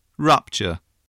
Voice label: "rupture", English spelling